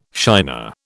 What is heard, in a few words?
"shiner", British